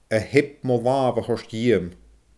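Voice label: "a theab mo laamh a thoirt dhiiom", Scottish Gaelic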